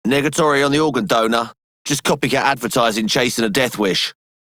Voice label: Male Radio; Dialog; Warframe; Solaris Venus

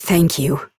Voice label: Operator Voice, en; Warframe; New War

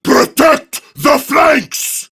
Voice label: Attack Prime Grineer Male; Tau; Battle Barks

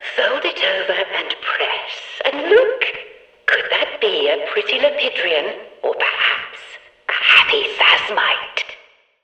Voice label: Background Announcements

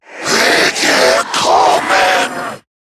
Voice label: Player Spotted, en; Zariman Wraith

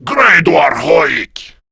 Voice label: Reload, Male; Warframe; Grineer Male B; Battle Barks

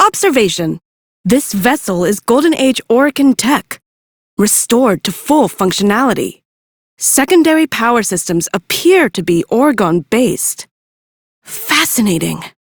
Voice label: Idle, Female; en; Warframe; Railjack Crew; Cephalon Suda

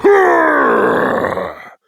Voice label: Angry; Warframe; Tyl Regor Boss; Efforts

